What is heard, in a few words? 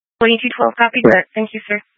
Fire Departments Mesa Simulcast; TOPAZ